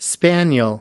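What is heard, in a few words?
"spaniel", American English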